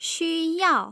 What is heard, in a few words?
xu yao, tone pairs